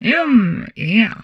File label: humanoid female; Stellaris; humanoids